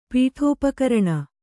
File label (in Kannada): "ಪೀಠೋಪಕರಣ", kannada